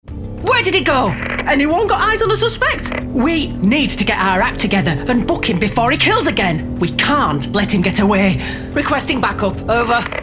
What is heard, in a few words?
Gaming Showreel; VO Pro Cast